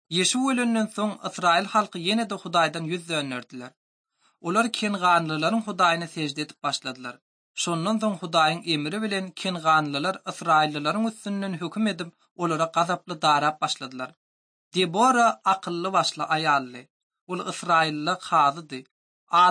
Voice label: turkmen, ot drama